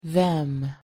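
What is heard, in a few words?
pronunciation "them", English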